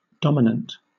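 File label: "dominant", British English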